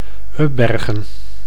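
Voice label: "Ubbergen", Dutch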